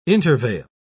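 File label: "intervale", British English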